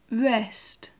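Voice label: "rest", British English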